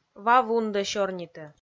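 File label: "ва вундӧ сёрнитӧ", Komi, female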